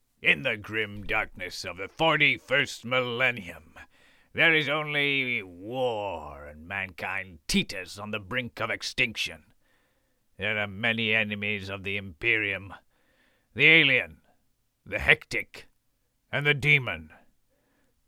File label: battle voice over experimental character